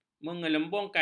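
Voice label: "menggelembungkan", Malay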